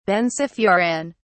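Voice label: "benzofuran", English, British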